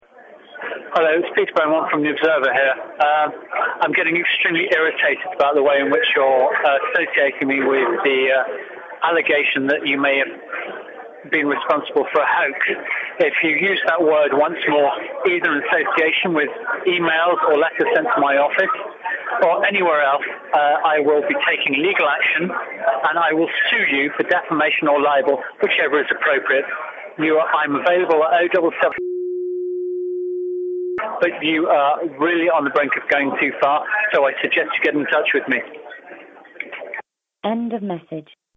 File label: voicemail; observer